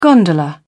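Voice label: "gondola", British English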